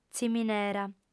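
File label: "ziminera", Sardinian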